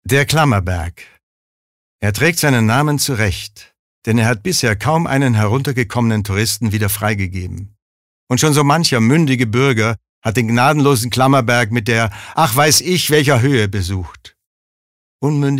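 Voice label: commentary, neutral; Kommentar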